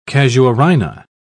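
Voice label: "casuarina", British English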